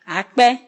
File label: "thanks", Ewe